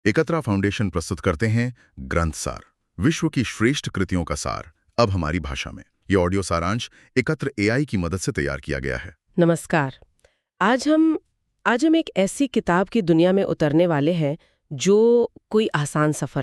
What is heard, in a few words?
hindi; the painted bird